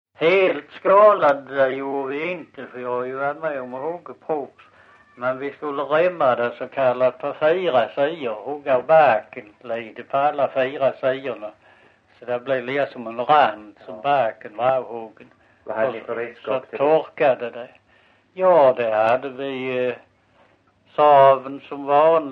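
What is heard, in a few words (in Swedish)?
Visseltofta Skåne, Svenska sagor dialekter; Folkminnen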